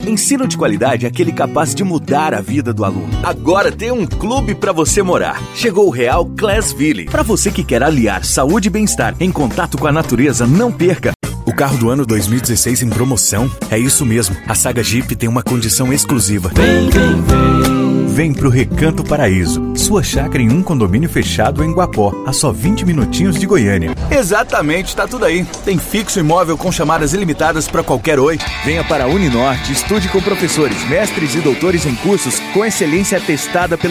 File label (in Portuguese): Audio Estilo Institucional